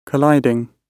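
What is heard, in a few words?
"colliding", British English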